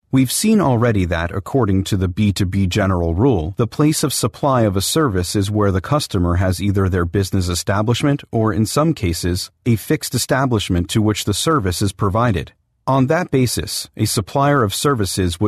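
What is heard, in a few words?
US English, male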